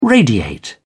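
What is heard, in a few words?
"radiate", British English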